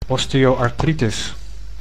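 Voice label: "osteoarthritis", Dutch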